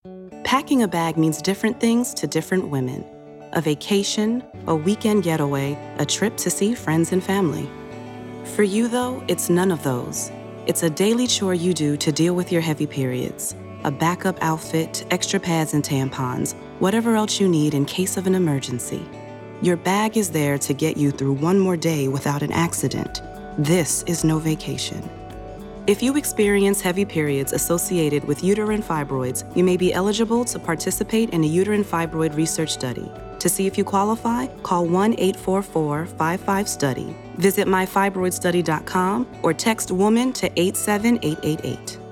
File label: Radio US Eng